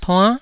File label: "tuann9", taiwanese